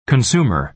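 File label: "consumer", American English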